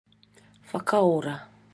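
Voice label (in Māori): "whakaora", Māori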